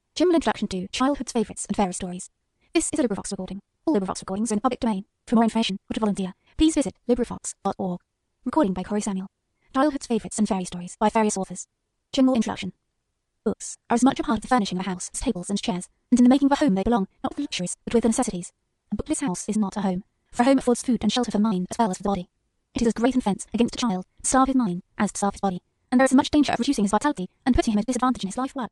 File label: sample female